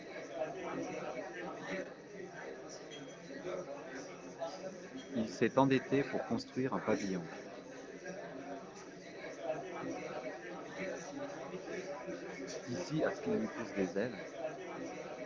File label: Pub Noise French Male Handset; STF 294 Database (EG-ES 202 396); AMR-WB; 0ms Delay - 0ms Jitter - 0% Loss